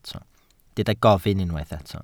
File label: "gofyn", Welsh